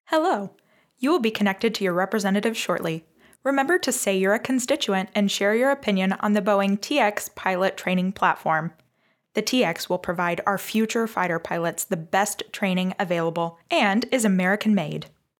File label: boing voice